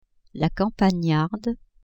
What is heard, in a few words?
"campagnarde", French; campagne